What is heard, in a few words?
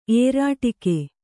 "ಏರಾಟಿಕೆ", Kannada